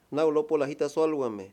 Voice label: "religión", Mayo; Religón y creencias